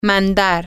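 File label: to send, Spanish, female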